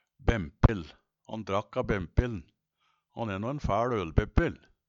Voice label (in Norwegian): bempill, Numedalsmål; Bempill var frå gamalt ein lagga trebutt med spuns.